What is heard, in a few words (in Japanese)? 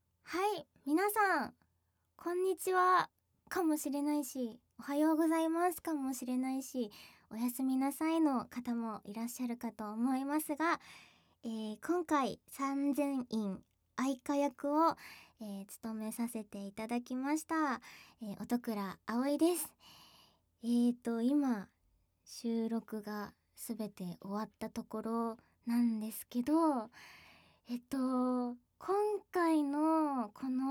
おまけ・収録後、フリートーク